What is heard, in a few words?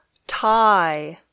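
"tie", English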